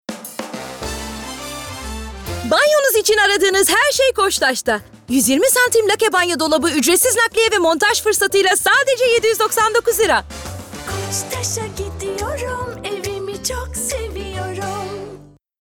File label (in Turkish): Promosyon